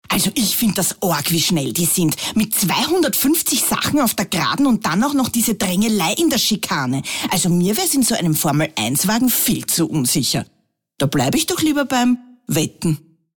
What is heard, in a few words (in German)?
Frau